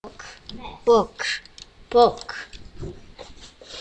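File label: "book", spoken word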